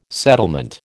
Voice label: "settlement", American

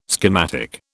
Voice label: "schematic", British

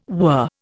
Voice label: "whir", Indian

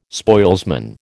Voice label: "spoilsman", American

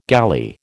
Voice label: "galley", American

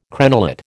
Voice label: "crenellate", American

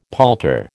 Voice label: "palter", American

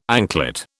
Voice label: "anklet", British

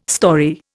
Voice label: "storey", Indian

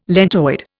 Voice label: "lentoid", Indian

